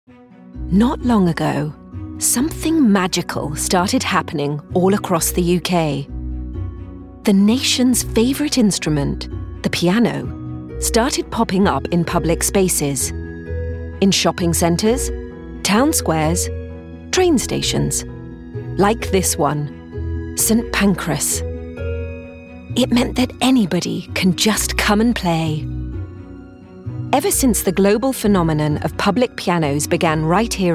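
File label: documentary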